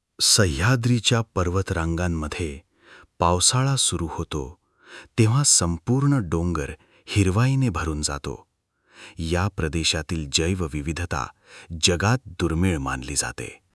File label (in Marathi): documentary, Marathi